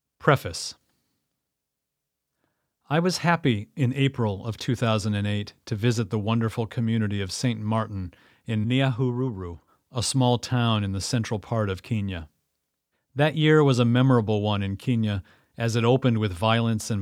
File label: Audiobook Sample